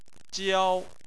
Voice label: "jiao1", Chinese; Chinese Surnames